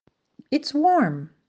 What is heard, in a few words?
"it's warm", English; weather